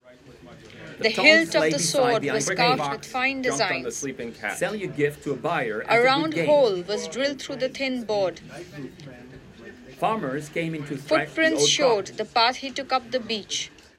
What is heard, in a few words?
Leakage Macbook Pro 14